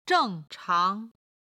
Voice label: "zheng chang" Chinese